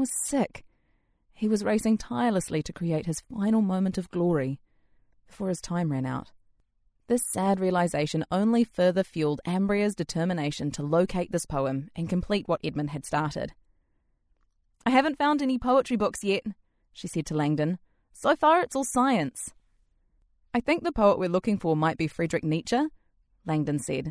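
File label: English New Zealand, female, voice over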